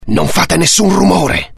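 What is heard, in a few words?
curious, Italian; mohaa; dialogue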